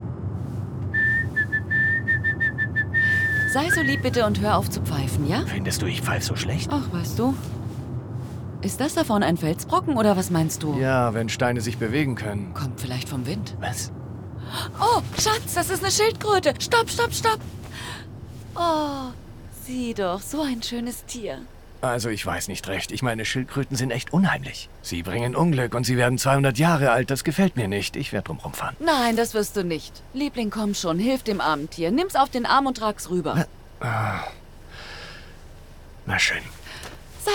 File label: German; BE A HERO